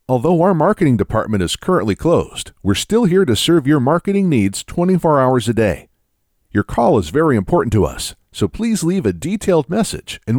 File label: Voice Mail